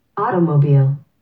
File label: automobile en fast